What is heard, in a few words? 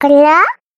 child ask, 子供(幼児)の「これは？」という声の効果音。; 甥っ子に協力していただきました。